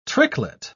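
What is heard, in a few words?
"tricklet", British English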